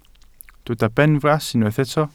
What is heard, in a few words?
"benfras", Welsh